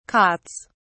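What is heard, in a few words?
"cots", British English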